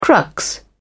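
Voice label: "crux", British English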